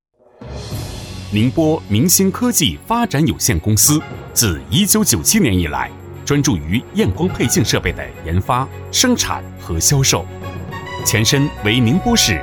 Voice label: 男

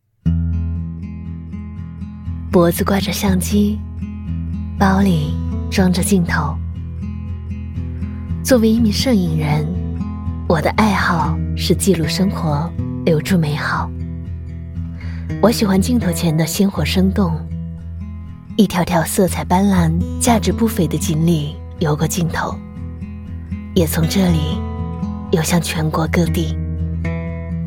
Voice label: 女 人物独白 温暖 素人 走心

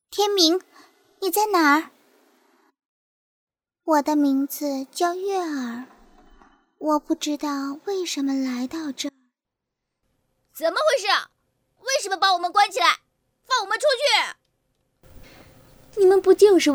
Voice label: 女 角色 模仿; 秦时明月天明女王小篱

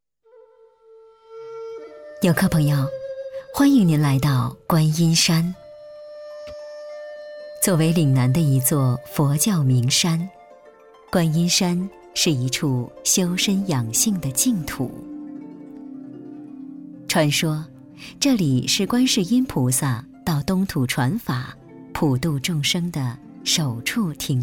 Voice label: 女 导游词 稳重古典文化意境; 佛教圣地观音山

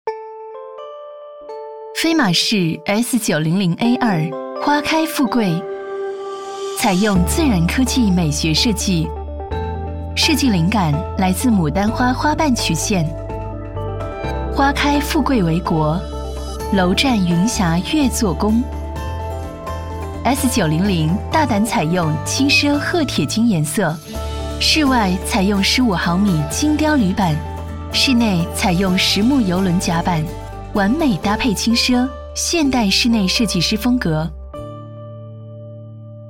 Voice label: 女 产品介绍 甜美; 菲马仕

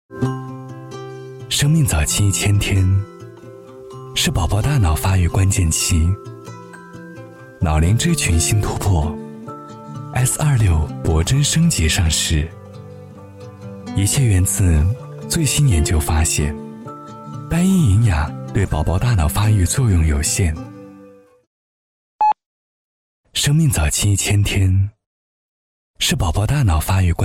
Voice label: 男, 广告, 自然, 温暖, 自然; 惠氏

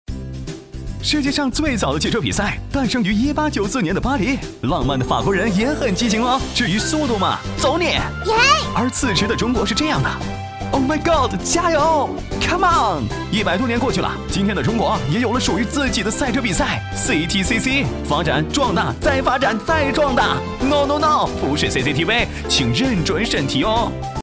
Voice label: 男 动画企宣 年轻时尚 汽车比赛; 飞碟说; CTCC汽车比赛

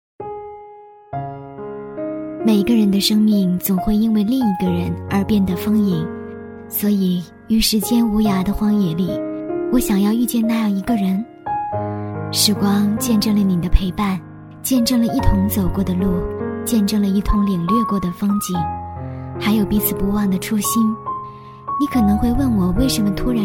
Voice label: 女 旅行 独白

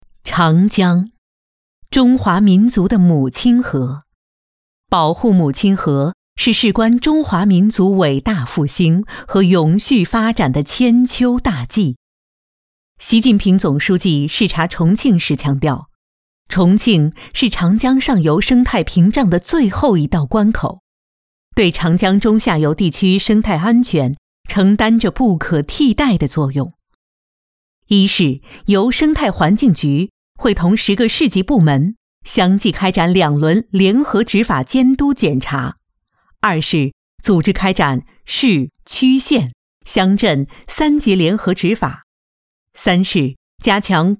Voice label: 女 纪实沉稳 自然缓慢; 长江重庆纪实

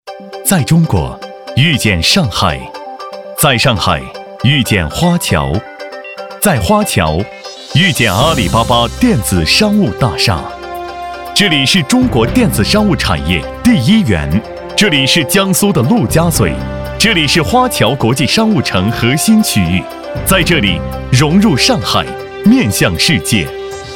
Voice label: 男; 阿里巴巴

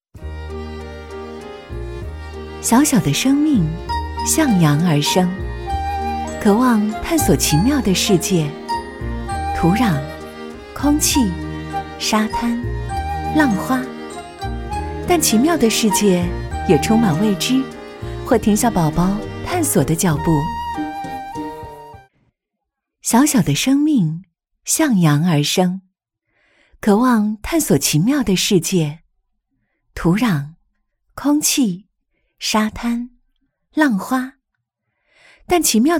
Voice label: 女 婴幼儿品牌故事 妈妈口吻 亲切 温柔; 悦护

